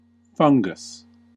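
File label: "fungus", American English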